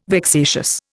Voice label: "vexatious", Indian